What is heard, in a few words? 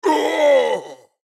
Pain Death; Black Adam